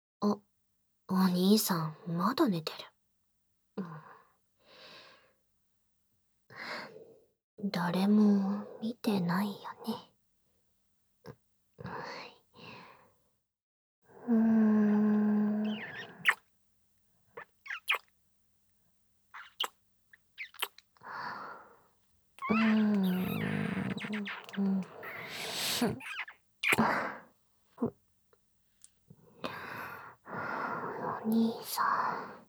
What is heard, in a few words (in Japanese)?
ツンデレ目覚ましボイス, ASMR; ノエル